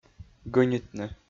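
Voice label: "гӧнитны", Komi, male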